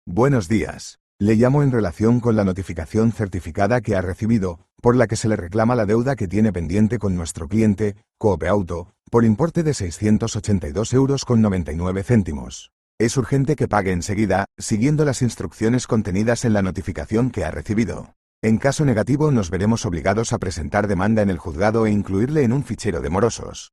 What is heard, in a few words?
audio call